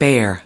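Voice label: "bare", US English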